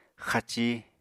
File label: "xaci", Apatani